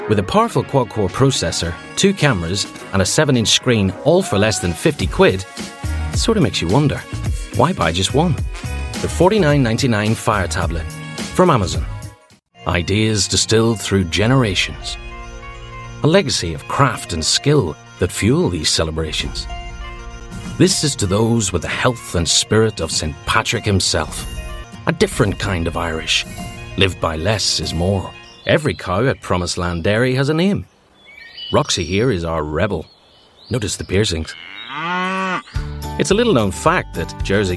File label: Commercials, regional accents